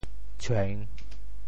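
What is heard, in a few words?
"tshueng3", Teochew; 闯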